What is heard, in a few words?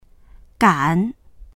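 "gan3", Mandarin Chinese; 敢; 思い切って～する、～する勇気がある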